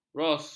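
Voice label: "grouse", Malay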